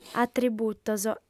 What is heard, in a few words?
"atributos", audiofem